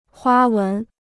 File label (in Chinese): "花纹", Chinese